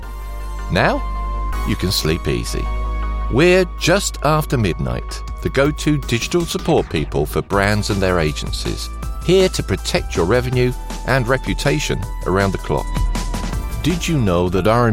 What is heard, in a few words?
Punchy Ongoing learner